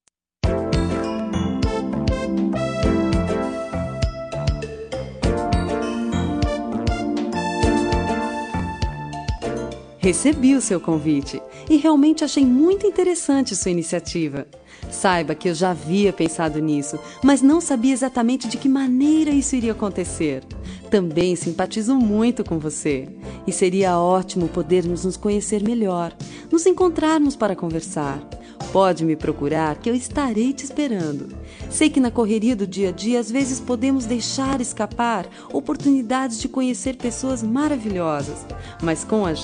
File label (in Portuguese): aceito sair feminina, Telemensagem Resposta – Voz Feminina; Sim Aceito sair